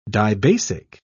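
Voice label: "dibasic", British English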